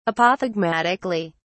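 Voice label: "apothegmatically", British English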